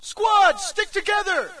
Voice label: us stick together; player; Source Engine; dod